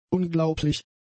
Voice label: "unglaublich", German; adjectives